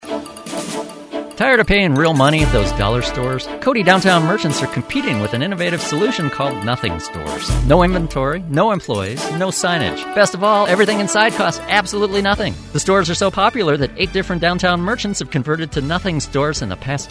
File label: NEWS MIXED